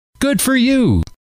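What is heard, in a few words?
good for you, male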